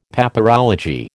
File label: "Papyrology", American